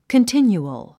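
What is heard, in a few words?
"continual", English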